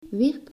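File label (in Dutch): "wierp", Dutch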